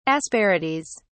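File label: "asperities", English, British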